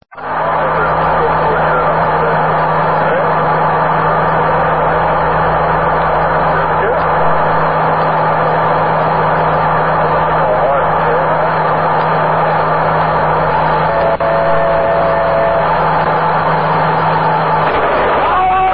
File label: airline blackbox recordings; vasp168